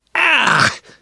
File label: reaction disgusted, survivor voice; namvet; Left 4 Dead 2; left4dead2 dlc2